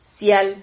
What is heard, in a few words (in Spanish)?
"sial", silabas